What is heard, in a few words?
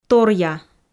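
"торъя", Komi, female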